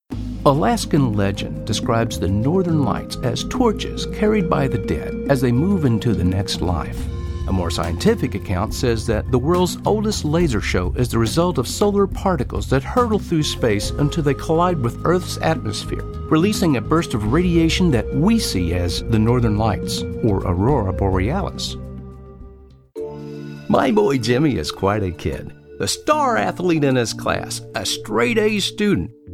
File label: PSAs Narrations